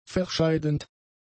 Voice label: "verscheidend", German; adjectives